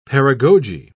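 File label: "paragoge", British English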